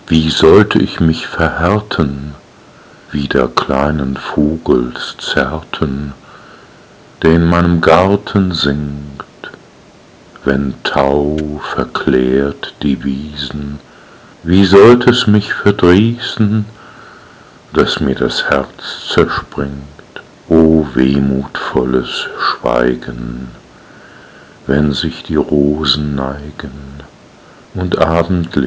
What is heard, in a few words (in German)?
Die weibliche Stimme